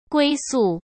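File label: "归宿", Mandarin Chinese; chinese-flashcards; hsk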